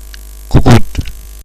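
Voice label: cuckoo, Catalan; animals; birds; tuxpaint-stamps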